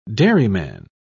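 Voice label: "dairyman", British English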